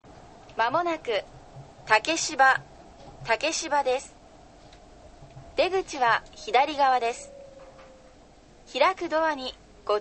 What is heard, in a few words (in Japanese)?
汐留到着前汐留発車後, 車内放送; 竹芝到着前; yurikamome